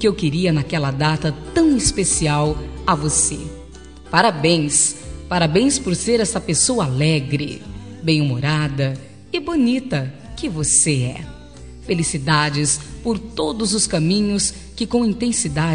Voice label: atrasado fem, Aniversário Atrasado – Voz Feminina; Telemensagens para todas as ocasiões

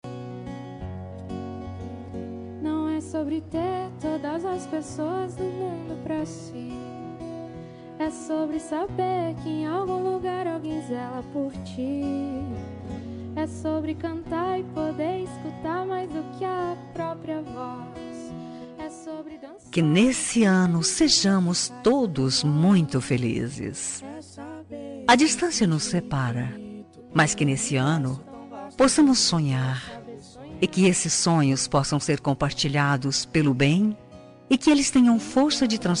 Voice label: ano novo distante, Ano Novo – Distante – Voz Feminina; Telemensagens

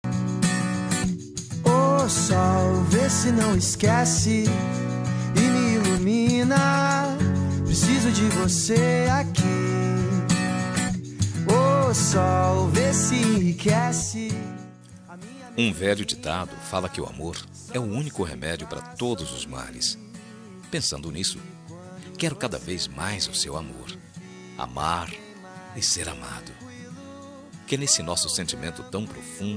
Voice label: rom suave, Telemensagem Início de Namoro – Voz Masculina

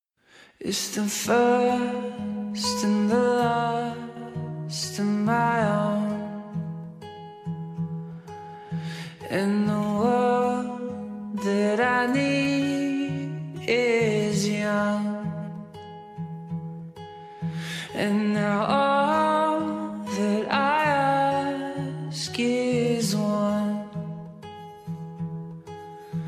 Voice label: dn marido fem; dia dos namorados para marido voz feminina

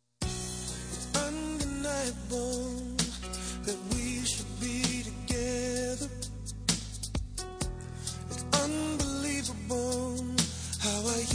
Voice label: crise masc, Telemensagem Relacionamento Crise – Voz Masculina

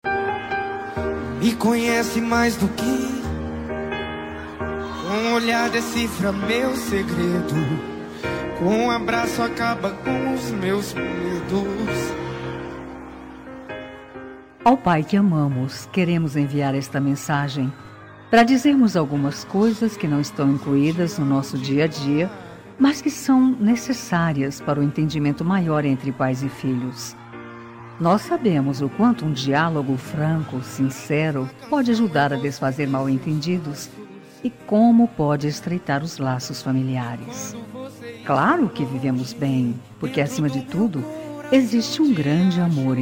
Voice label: plural fem, Voz Feminina; Dia dos Pais

